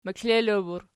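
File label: "meklelo" plural, Archi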